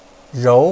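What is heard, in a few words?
"rou2", Chinese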